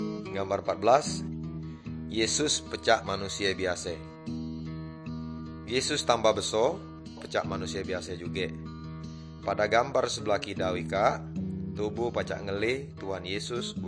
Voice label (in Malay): good news melayu tanjung raja